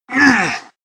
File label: heavy pain; zero